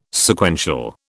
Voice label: "Sequential", British